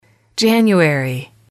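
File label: "january", English, female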